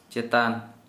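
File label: "up", Santali, male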